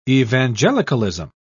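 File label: "evangelicalism", British English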